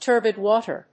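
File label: "TURBID WATER", English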